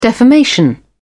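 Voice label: "defamation", British English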